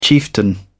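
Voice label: "chieftain", British English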